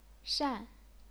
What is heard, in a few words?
"shan4", chinese pinyin